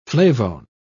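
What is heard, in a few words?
"flavone", British English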